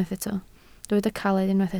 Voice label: "caled", Welsh